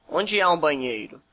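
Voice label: toilet, Brazilian Portuguese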